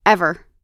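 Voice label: "ever", American English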